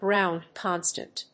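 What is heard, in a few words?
"ground constant", English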